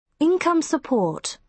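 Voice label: "income support", British English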